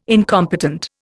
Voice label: "incompetent", Indian